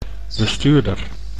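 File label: "bestuurder", Dutch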